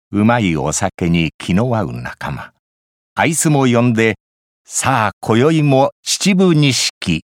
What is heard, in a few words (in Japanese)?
お酒CM